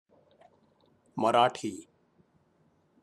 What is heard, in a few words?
Marathi Language pronunciation